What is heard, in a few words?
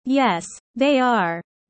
low; studying abroad